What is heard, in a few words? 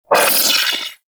Vocal Pain; Warframe; Sentients; Battalyst